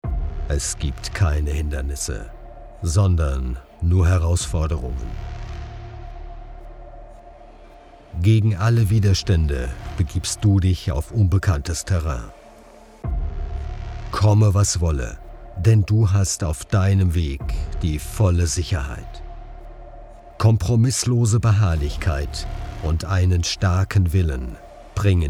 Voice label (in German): reifen werbung